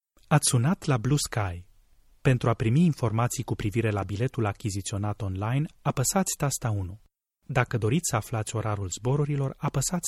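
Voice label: Romanian, male, interactive voice response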